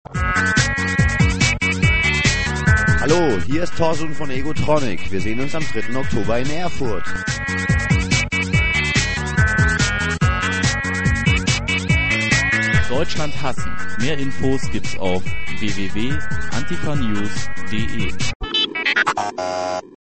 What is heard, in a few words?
trailer